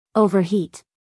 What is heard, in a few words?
"overheat", US English, female